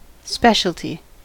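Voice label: "speciality", US English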